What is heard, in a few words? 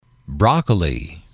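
"broccoli", english, male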